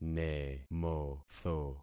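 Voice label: "nemotho", concatenated syllables; Affixing experiment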